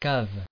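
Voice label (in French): "cave" French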